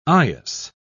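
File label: "eyas", British English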